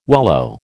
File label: "wallow", American